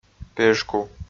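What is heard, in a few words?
"пежку", Komi, male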